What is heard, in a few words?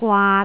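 "gwaat3", Cantonese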